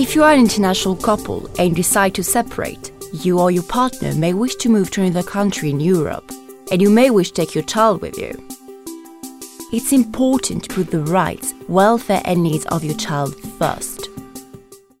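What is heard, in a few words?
French English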